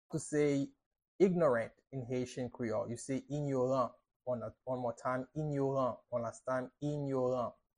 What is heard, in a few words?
How to say Ignorant in Haitian Creole – Inyoran pronunciation by a native Haitian Creole teacher